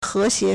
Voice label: "he2xie2", Chinese